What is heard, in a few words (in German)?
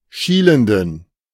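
"schielenden", German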